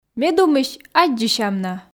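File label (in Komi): ме думысь аддзысям на, Komi, female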